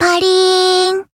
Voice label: finish, jp; yukino